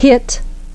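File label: "hit", English pronunciation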